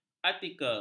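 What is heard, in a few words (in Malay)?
"artikel", Malay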